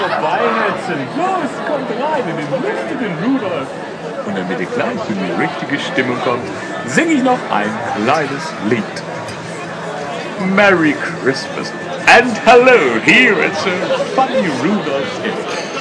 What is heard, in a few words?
german